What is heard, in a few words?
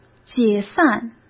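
"解散", Mandarin Chinese; hsk; chinese-flashcards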